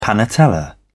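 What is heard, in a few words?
"panatella", British English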